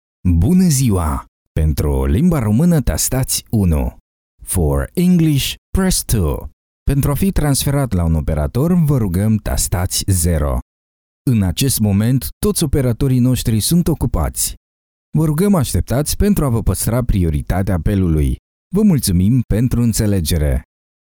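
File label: romanian interactive voice response; Natural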